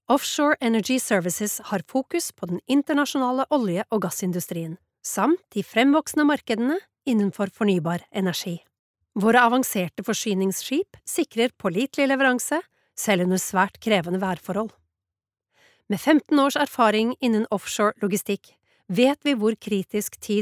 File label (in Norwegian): informativ, norsk, kvinne